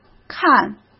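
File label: "看", Mandarin Chinese; chinese flashcards; hsk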